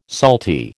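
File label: "salty", American English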